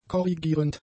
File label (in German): "korrigierend", German; adjectives